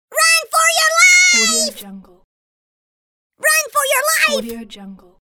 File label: cartoon character yelling run for your life